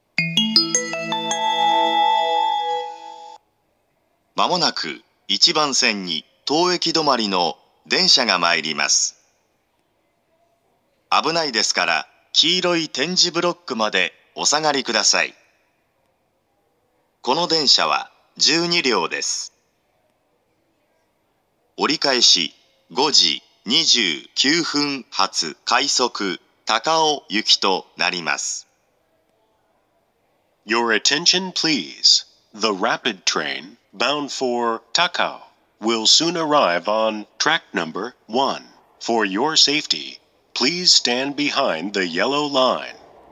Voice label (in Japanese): 接近放送, 東京駅; １番線接近放送; 中央線（快速）; 御茶ノ水・新宿・中野・三鷹・国分寺・高尾方面